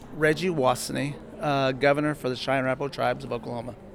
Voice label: pronouncer tribal leader